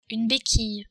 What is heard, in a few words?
"goutte", french